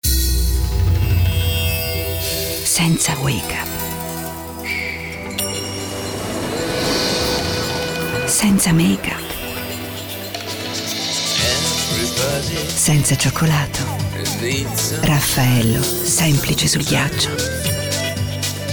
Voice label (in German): italienisch werbung; sprecher